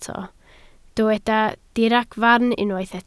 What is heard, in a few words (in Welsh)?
"diragfarn", Welsh